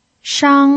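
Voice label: "shang" Mandarin Chinese, first tone, pinyin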